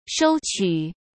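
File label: "收取", Mandarin Chinese; chinese-flashcards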